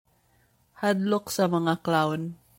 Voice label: Cebuano